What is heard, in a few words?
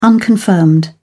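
"unconfirmed", British English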